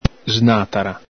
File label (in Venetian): "snatara", Veneto